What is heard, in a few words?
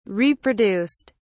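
"reproduced", British English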